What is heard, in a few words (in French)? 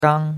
"gang1", chinois; Cours-de-Chinois